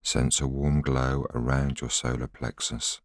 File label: voiceover